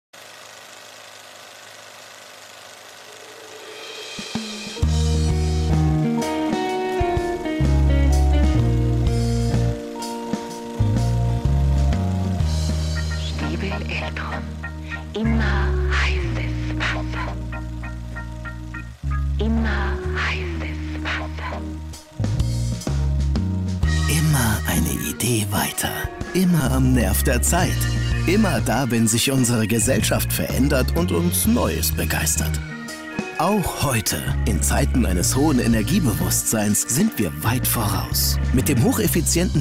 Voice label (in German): imagefilm; stiebel eltron